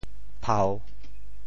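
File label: "phau3", Teochew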